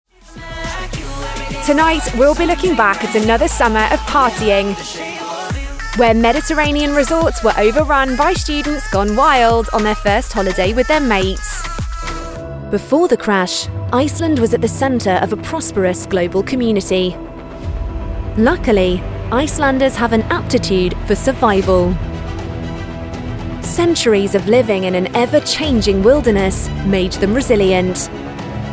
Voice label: Narration